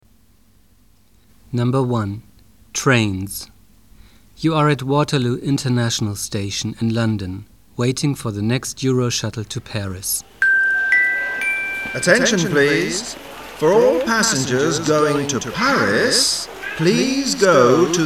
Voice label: loudspeaker announcements; Fremdsprachen